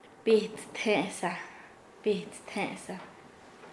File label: "hombre adulto", Nasa Yuwe; paez